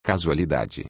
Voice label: Casualidad en portugues

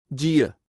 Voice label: Guia en portugues

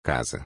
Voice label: Casa en portugues

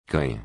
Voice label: Cana en portugues

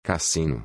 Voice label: "Casino" en portugues

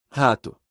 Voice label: "Rato" en portugues

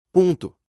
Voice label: "Punto" en portugues

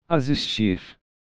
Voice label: Asistir en portugues

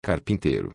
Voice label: "Carpintero" en portugues

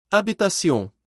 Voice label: Habitacion en portugues

